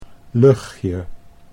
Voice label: "luchtje", Dutch